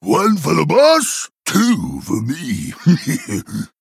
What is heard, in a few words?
Male Tuskarr Play